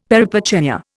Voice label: "peripeteia", Indian; Dictionary